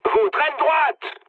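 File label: right wing, mood medium, French; War Thunder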